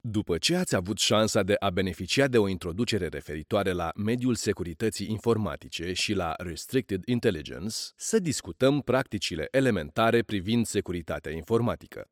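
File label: Romanian, E-Learning; GoLocalise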